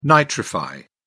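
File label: "nitrify", British English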